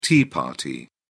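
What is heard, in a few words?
"tea party", British English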